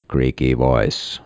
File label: creaky voice